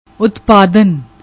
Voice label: "Uttpadan", Punjabi; ਉਤਪਾਦਨ

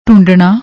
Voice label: "dhundnna", Punjabi; ਢੂੰਡਣਾ

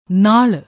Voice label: "naal", Punjabi; ਨਾਲ਼

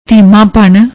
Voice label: "dhimapann", Punjabi

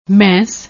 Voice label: "meensh", Punjabi

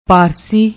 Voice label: "parsi", Punjabi

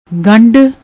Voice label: "gandd", Punjabi; ਗੰਢ

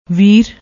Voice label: "veer", Punjabi; ਵੀਰ

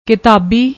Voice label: "kitaabi", Punjabi

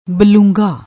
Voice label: "baloonga", Punjabi

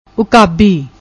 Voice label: "Uukabi", Punjabi

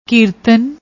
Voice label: "keertan", Punjabi; ਕੀਰਤਨ

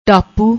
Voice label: "tapoo", Punjabi

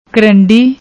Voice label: "krandi", Punjabi; ਕਰੰਡੀ

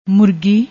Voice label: "moorgi", Punjabi

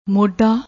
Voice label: "modda", Punjabi

